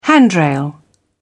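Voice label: "handrail", British English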